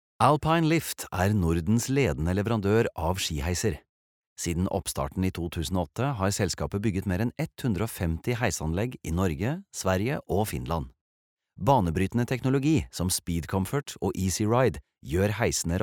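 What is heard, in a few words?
Norwegian informativ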